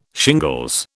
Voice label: "shingles", British

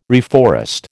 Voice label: "reforest", American

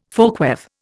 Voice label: "folkweave", Indian

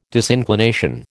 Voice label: "disinclination", American

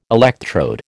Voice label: "electrode", American

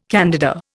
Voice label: "candida", Indian

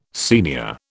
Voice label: "senior", British

